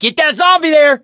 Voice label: fallen survivor, survivor voice